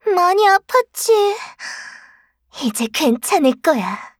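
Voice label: kill Player, Voice Line, Korean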